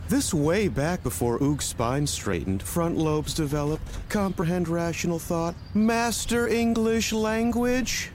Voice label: English